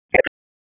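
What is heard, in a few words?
Raleigh Police Special Operations Tactical, p25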